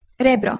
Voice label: "rebro" Bosnian Croatian